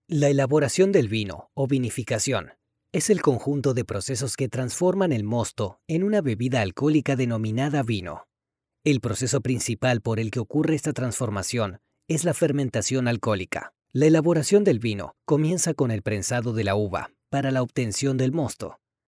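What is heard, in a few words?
Spanish Latin America male voice over, narrative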